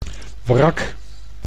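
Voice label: "wrak", Dutch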